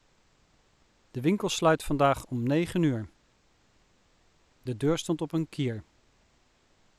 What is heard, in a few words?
male Dutch Pink Noise; Speech Quality Examples